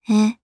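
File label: Vox Japanese; Gremory; kingsraid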